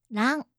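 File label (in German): "ran", japanische Mädchennamen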